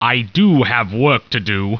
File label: annoyed; humans; war2; basic human voices